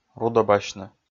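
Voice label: "рудӧбасьны", Komi, male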